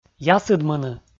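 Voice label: "ясыдмыны", Komi, male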